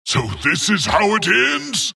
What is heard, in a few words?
wraith death; dota; skeleton king